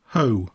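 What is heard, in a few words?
"hoe", English; poitiers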